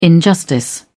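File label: "injustice", British English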